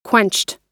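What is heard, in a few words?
"quenched", American English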